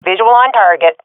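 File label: sees enemy, swat female; vo; agents; Source Model Packs; Counter Strike Model Pack; CS2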